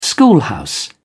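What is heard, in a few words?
"schoolhouse", British English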